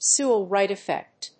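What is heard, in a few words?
"Sewall Wright-effect", English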